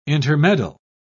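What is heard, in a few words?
"intermeddle", British English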